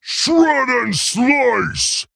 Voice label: Male Ogre Attack